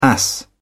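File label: "ass", English, British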